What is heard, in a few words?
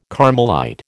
"Carmelite", American